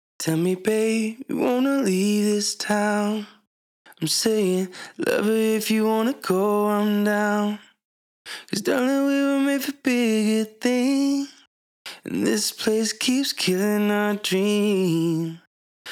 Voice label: wet vocal; vocal acrobatics